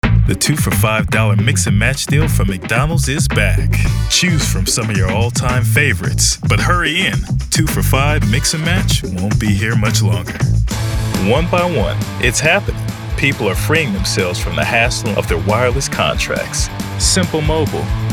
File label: Commercial